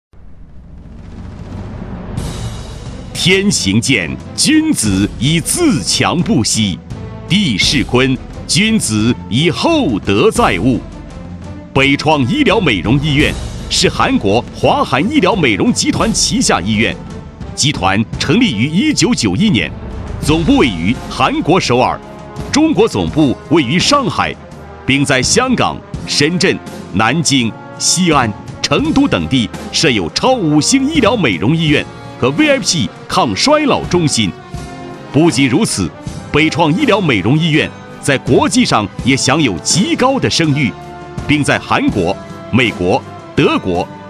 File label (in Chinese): 宣传片 企业 激情, 男国; 韩国北创医疗美容集团